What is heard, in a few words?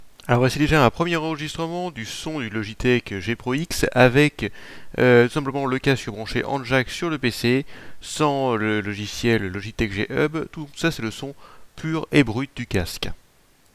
logitech g pro x micro